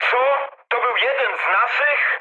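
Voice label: Polish player killed ally mood medium; War Thunder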